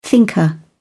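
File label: "thinker", British English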